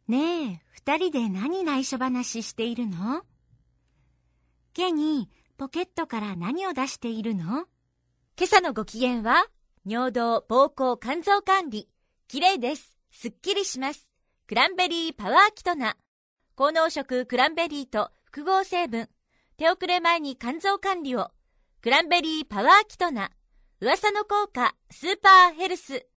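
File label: Japanese, female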